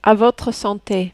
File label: "a votre sante", French